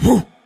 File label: "poof" male; ooh ahh; human